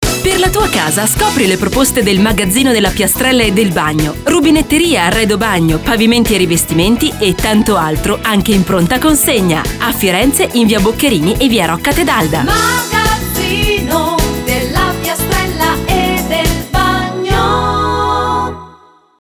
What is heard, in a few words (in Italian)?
spot radio; MAGAZZINO PIASTRELLA E BAGNO